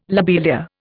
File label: "lobelia", Indian